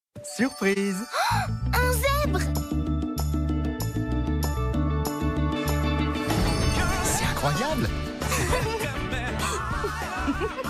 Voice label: H FR PUB; Kinder Surprise Applaydu

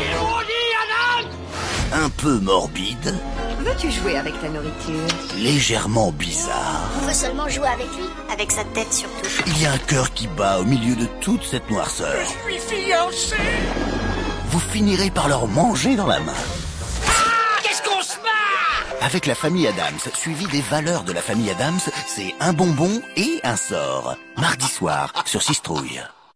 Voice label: voix des ténebres Halloween; 6trouille

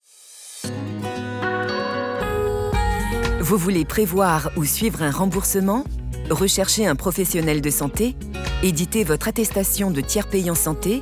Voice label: comédienne voix off mutuelle; generation

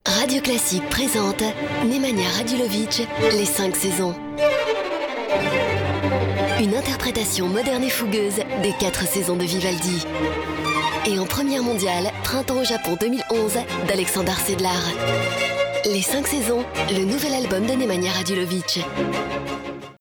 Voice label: élégante; Les 5 Saisons

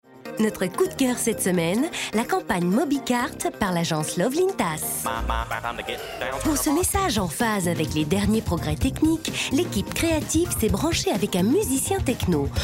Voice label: MEDLEY VOIX JOURNALISTIQUES

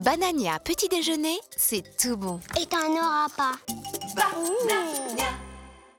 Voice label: PUB TV petit déjeuner, voix; BANANIA

